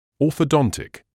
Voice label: "orthodontic", English